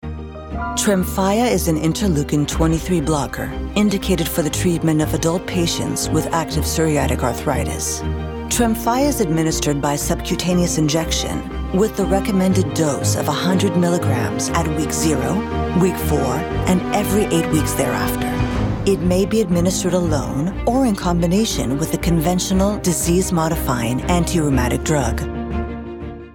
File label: Pharma English